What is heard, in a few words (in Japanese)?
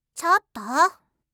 "chottoo", reaction, voice